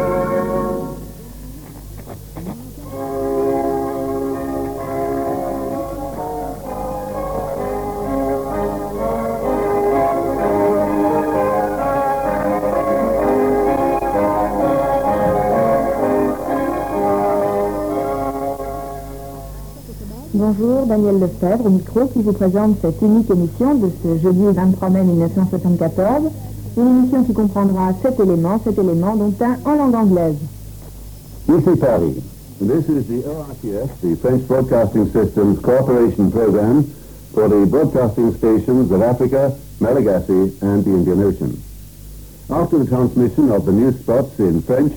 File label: Radio Calls; ORTF; Paris 1970